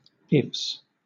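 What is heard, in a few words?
"ifs", British English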